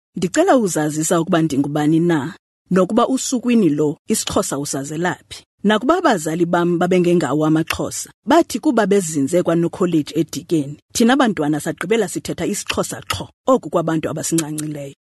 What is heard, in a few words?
Xhosa, Female